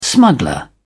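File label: "smuggler", British English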